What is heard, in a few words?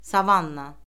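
"savanna", Azerbaijani; Orfoqrafiya Lüğəti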